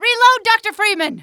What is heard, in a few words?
reload, female; Half-Life 2